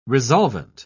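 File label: "resolvent", British English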